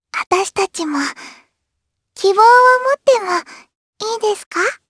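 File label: vox trs Japanese; Lavril; kingsraid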